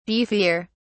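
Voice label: "beefier", English, British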